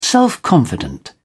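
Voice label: "self confident", British English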